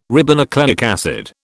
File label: "ribonucleic acid", British